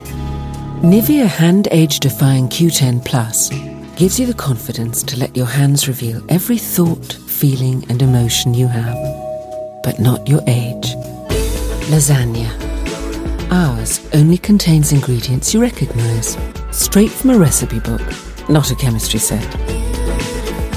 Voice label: Commercial